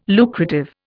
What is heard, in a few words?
"lucrative", Indian